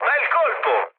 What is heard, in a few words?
player killed enemy mood med, speech it